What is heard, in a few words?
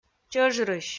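"чӧжрысь", Komi, female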